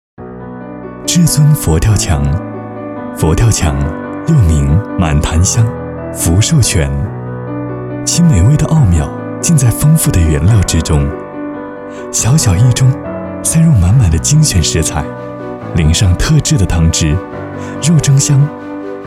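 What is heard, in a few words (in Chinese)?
科技感解说旁白; 科技感解说助人旁白录制香格里拉敲定版美食试音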